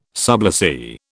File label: "sublessee", British